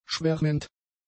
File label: "schwärmend", German; adjectives